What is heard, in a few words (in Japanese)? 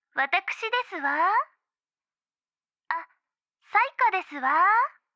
着信ボイス; 才華; お姉さま、えっちなことに興味がございます